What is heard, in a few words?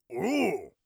inquisitive, orc voice; Orc Voice Pack